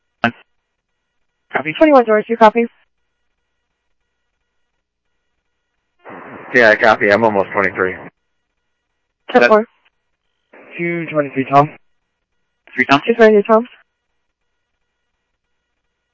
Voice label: PD, scanner; PHOENIX PD-200 A; ThompsonPeakHigh